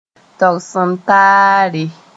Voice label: "togs-n-taare", Mooré